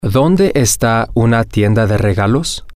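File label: where Is A Gift Shop, Spanish, male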